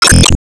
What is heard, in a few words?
Emo Call Name